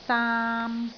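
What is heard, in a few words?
"daam3", Cantonese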